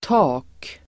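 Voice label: "tak", Swedish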